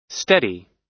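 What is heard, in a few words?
"steady", US English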